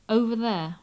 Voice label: "over there", English

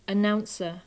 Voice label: "announcer", English

